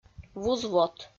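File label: "вуз-вот", Komi, female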